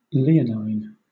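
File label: "leonine", British English; pronunciations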